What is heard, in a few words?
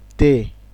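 "tay", Nigerian Pidgin, male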